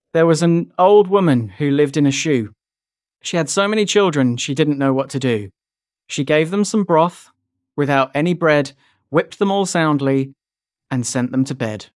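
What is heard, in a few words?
male British; There Was an Old Woman Who Lived in a Shoe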